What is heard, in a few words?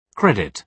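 "credit", British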